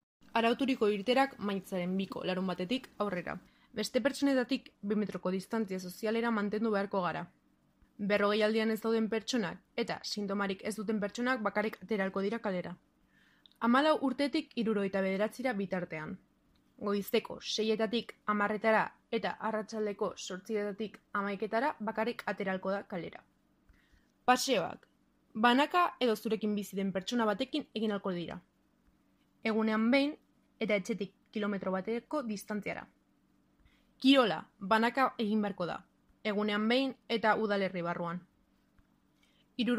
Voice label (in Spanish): euskera; Tejiendo Redes